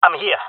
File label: in position, jungle male; player vo; Counter Strike Model Pack; CS:GO